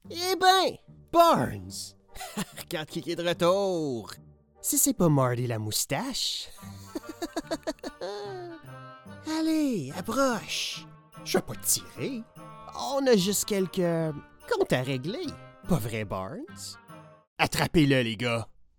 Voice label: male french canadian; english canadian

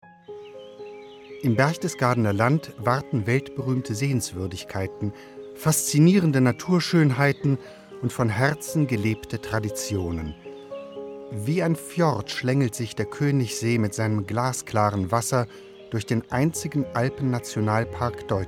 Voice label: male german tourism commercial; bavaria

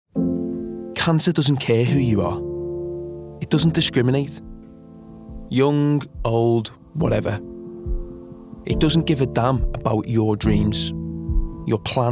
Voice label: male english scouse accent charity appeal

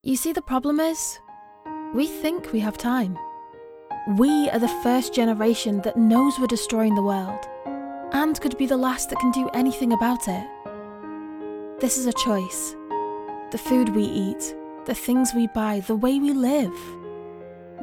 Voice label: female english northern accent appeal; wwf

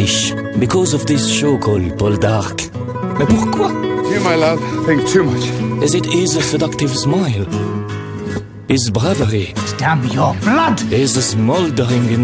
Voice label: male italian english with french accent promo; poldark bbc

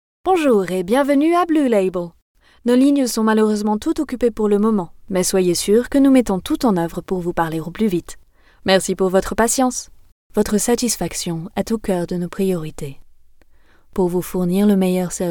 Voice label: female French IVR compilation

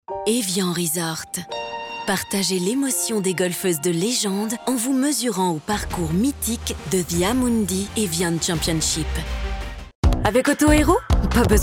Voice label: female French TV commercial compilation

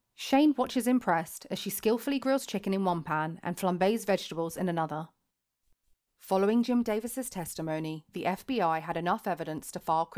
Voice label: female English audio description compilation

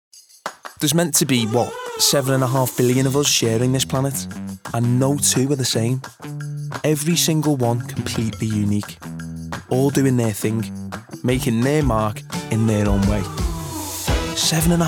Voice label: male English Scouse accent commercial; english northern accents; o2

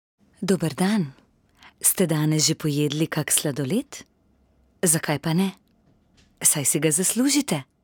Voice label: female slovenian commercial

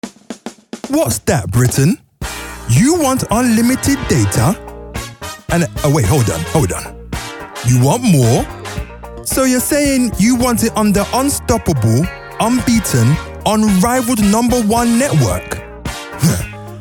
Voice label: male english commercial

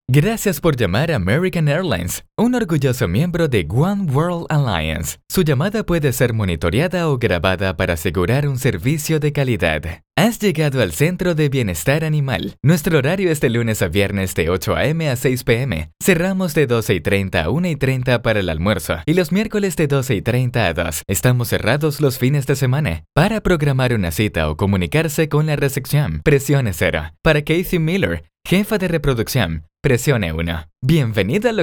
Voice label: male spanish venezuelan neutral spanish latam ivr; devine voices

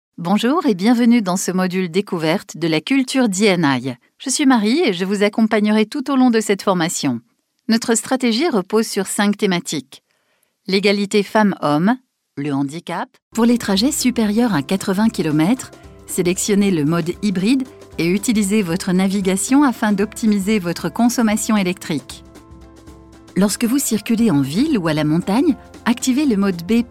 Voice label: female french e-learning compilation

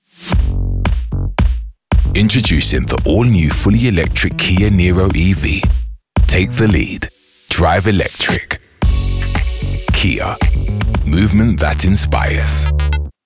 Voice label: male English commercial; kia

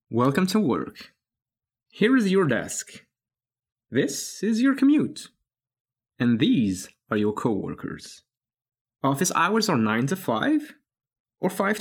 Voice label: male slight usa accent; Devine Voices

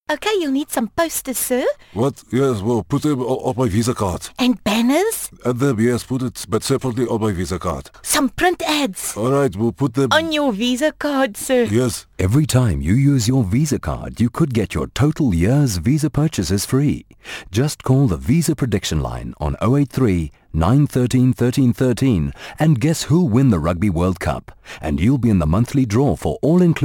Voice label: male english multiple accents commercial; visa

